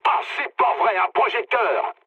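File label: searchlite, mood low, French; War Thunder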